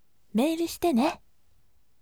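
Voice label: "mail shitene", gentle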